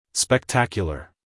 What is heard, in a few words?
"spectacular" us male; everyone-can-use-english